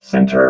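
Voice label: "center", robot voice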